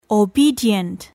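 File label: "obedient", English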